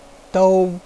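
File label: "dou1", Mandarin Chinese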